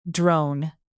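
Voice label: "drone bee", English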